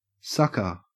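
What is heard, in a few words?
"sucker", Australian English; pronunciations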